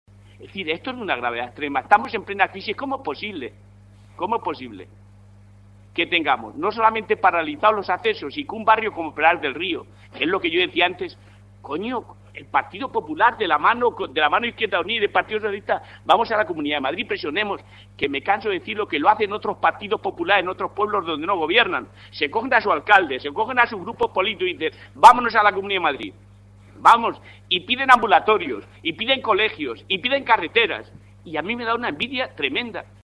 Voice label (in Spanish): noticias